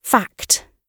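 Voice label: "fact", British English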